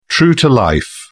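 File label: "true-to-life", British English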